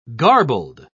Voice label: "garbled", pronounce